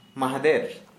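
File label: Santali male day after tomorrow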